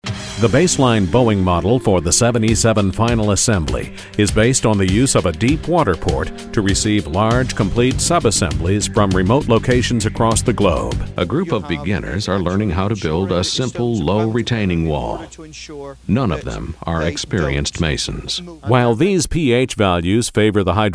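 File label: narration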